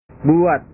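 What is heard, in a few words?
"buat", Indonesian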